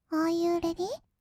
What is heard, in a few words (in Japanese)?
アーユーレディー, kawaii voice; 音ゲー